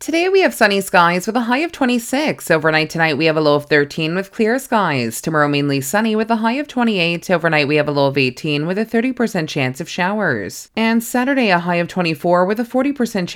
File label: traffic, weather update